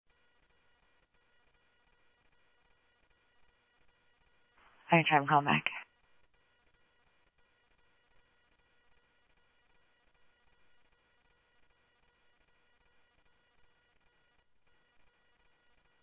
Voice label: Mesa Simulcast, MESA PD, scanner audio